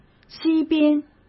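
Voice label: "西边", Mandarin Chinese; hsk; chinese flashcards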